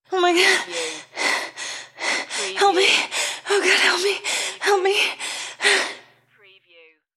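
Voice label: Female Scared Help Me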